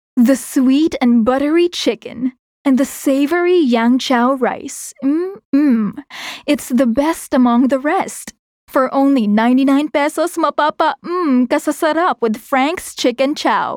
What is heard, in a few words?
Taglish, Sultry, Inviting, Seducing